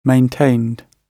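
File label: "maintained", British English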